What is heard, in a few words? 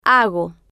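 "hago", Spanish, female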